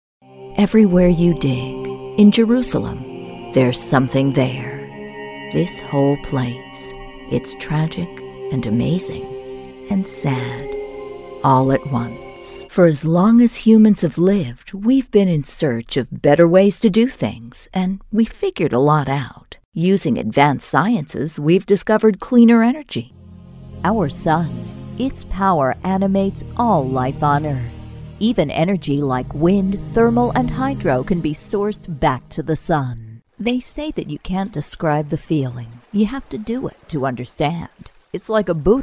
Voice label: documentary narration female

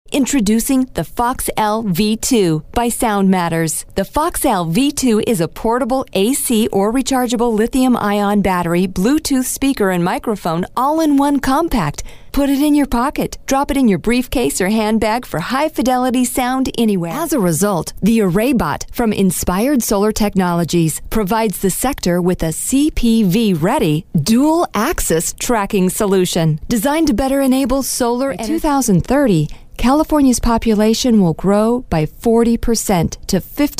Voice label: eLearning Female; commercial voiceover

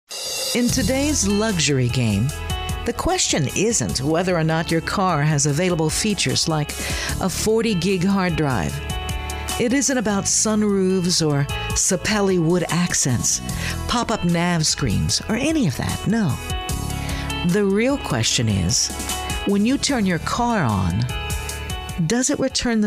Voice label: Commercial Female; commercial voiceover

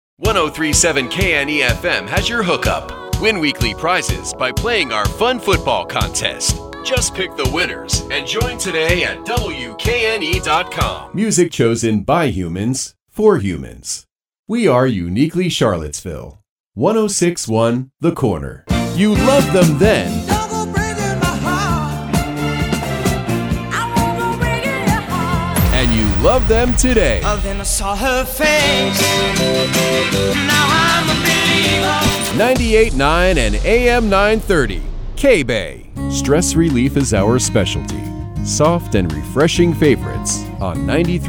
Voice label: Imaging Male; Commercial Voiceover, Radio Imaging

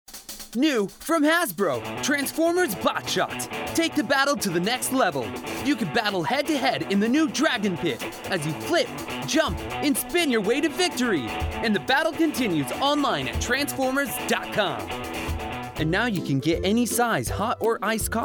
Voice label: Commercial Male; commercial voiceover

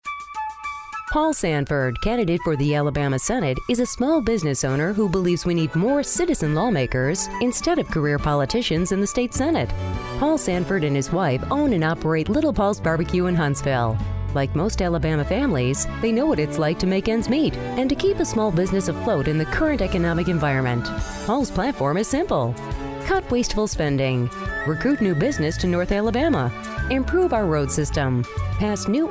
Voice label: Political Female

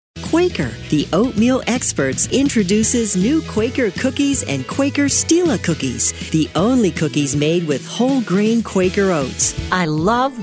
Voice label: Commercial Female

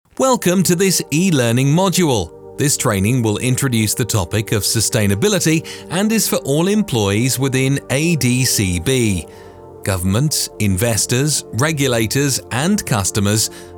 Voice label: Corporate Male British

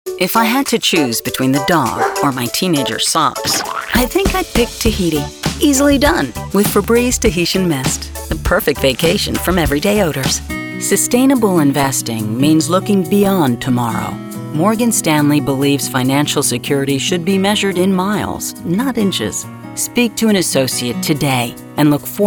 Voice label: commercial, commercial voiceover

